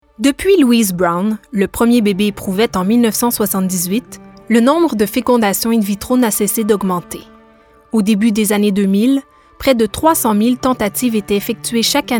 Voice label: Narration